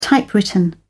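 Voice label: "typewritten", British English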